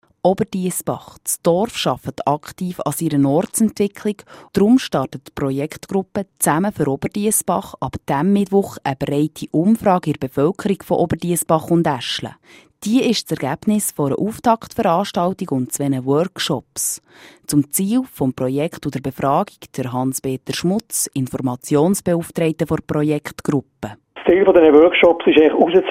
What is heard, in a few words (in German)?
Radio; BEO